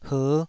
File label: "her5", taiwanese